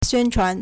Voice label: "xuan1chuan2", Chinese